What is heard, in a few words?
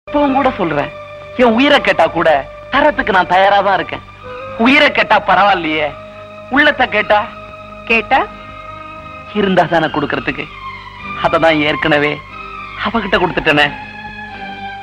tamil dialogue; ringtone